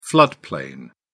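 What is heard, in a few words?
"floodplain", British English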